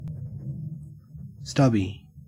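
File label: "stubby", Australian English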